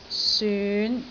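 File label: "syun5", Cantonese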